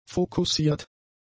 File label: "fokussiert", German; adjectives